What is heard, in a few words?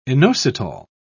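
"inositol", British English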